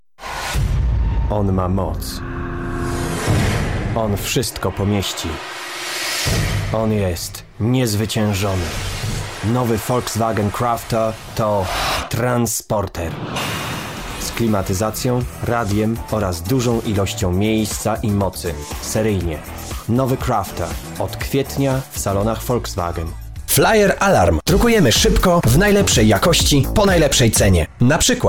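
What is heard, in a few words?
Polish, male, commercial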